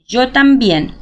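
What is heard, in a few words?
"Yo también", Spanish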